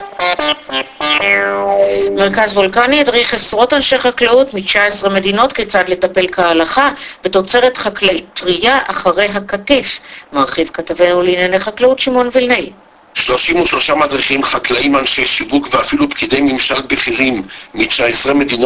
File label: radio